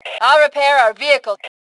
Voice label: Self Repair Vehicle, Female; T2 Female 03